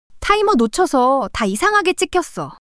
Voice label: fluster